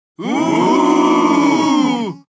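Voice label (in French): oooh, French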